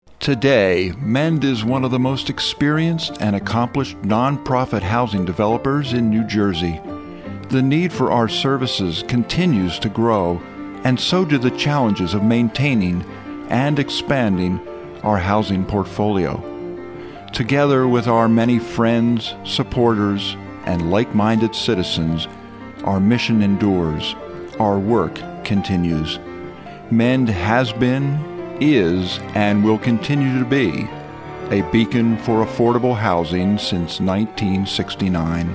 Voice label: Documentary Outro